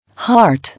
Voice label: "Heart", English